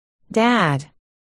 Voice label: "dad", articulation